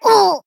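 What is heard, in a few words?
damaged, Japanese; white nopou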